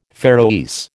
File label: "Faroese", American